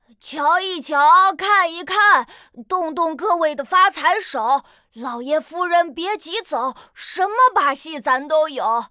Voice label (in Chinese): 监督小孩, peiyin